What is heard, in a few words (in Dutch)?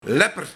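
"Lepper", Luik, Opgroeiende jongen, jongeling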